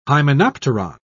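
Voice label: "hymenopteron", British English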